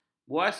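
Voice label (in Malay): "buasir", Malay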